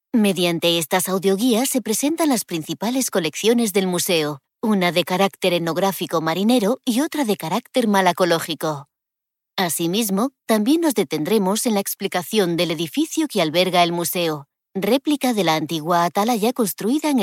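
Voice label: Spanish, female, audioguide; voice-over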